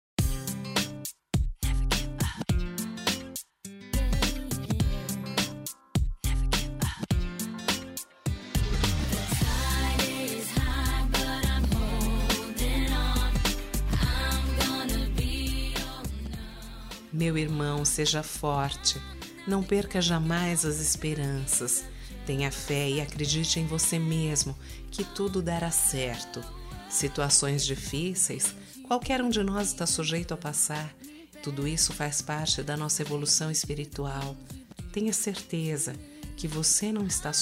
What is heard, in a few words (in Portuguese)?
OTIMISMO IRMAO F, telemensagem